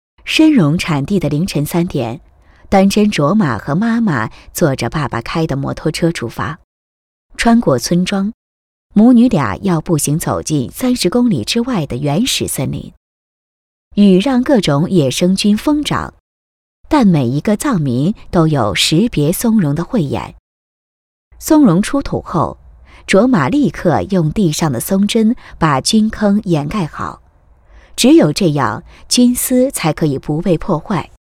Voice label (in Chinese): 女声; 参蓉产业专题